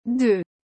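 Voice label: "deux", French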